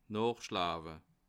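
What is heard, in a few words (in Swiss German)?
"noochsschlawe", Bas Rhin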